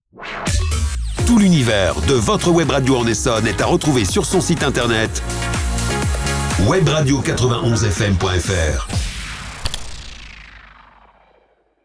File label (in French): Jingle Site web; Transitions